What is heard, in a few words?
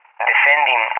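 "defending", English, SPAIN; DCS; Allied Flight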